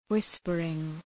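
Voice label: "whispering", English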